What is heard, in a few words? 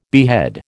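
"behead", American English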